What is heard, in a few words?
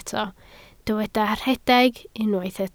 "rhedeg", Welsh